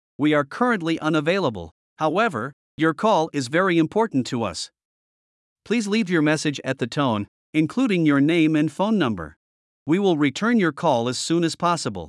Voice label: General MBX Greeting